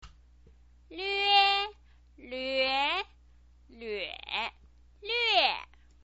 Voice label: "lve", chinese pinyin

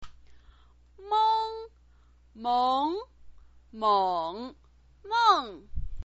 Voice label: "meng", chinese pinyin

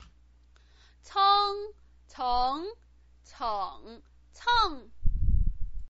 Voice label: "ceng", Chinese pinyin

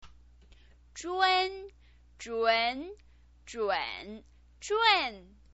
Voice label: "zhun", Chinese pinyin

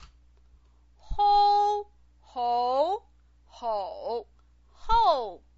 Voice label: "hou", Chinese pinyin

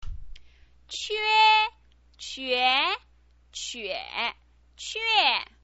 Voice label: "que", chinese pinyin

